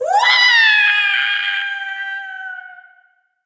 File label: Troll VO Fall; Troll VO Fall 02